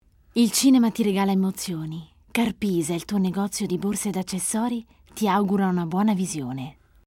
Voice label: ITALIANO IMPOSTATA